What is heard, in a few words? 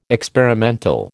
"experimental", American